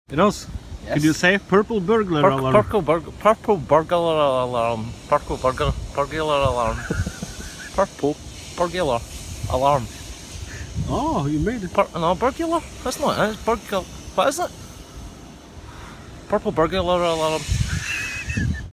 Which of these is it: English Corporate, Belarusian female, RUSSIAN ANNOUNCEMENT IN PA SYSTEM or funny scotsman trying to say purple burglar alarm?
funny scotsman trying to say purple burglar alarm